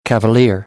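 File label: "cavalier", American English; AmericanBritish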